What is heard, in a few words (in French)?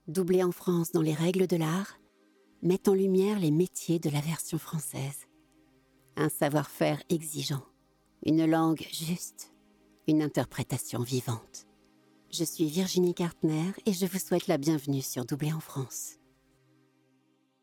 presentation